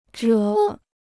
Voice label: "者", Chinese

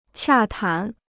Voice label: "洽谈", Chinese

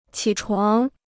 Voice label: "起床", Chinese

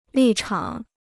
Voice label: "立场", Chinese

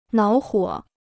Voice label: "恼火", Chinese

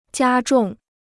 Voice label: "加重", Chinese

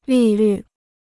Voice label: "利率", Chinese

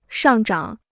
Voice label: "上涨", Chinese

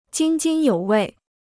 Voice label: "津津有味", Chinese

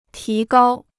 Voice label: "提高", Chinese

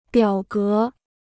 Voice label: "表格", Chinese

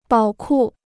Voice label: "宝库", Chinese

